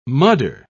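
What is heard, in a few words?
"mudder", British English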